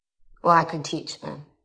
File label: Voice Acting es F